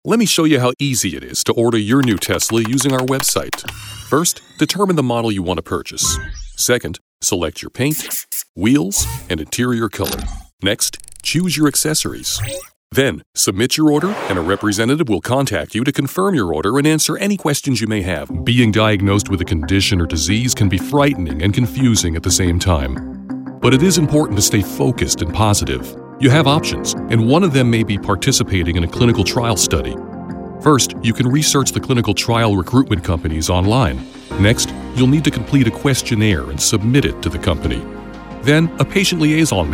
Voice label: eLearning